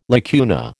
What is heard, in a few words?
"lacuna", American